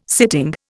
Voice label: "sitting", Indian

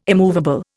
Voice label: "immovable", Indian

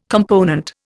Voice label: "component", Indian

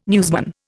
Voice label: "newsman", Indian

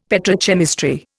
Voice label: "petrochemistry", Indian; Dictionary

